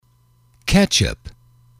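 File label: "ketchup", English, male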